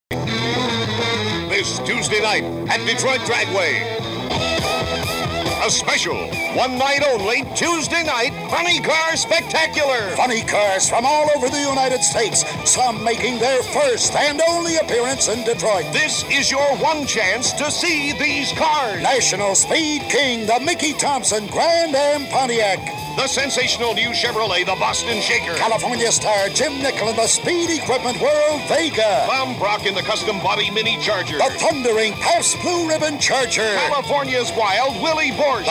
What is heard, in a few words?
Commercial; Detroit Dragway